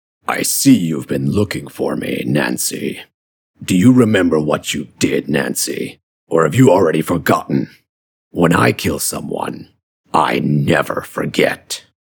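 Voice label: ENGLISH CHARACTER, voice sounds serious and powerful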